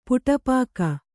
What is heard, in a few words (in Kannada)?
"ಪುಟಪಾಕ", Kannada